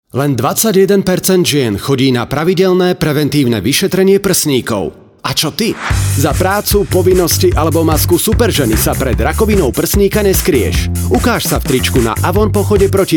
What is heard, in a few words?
radio spot; expres; walk 2014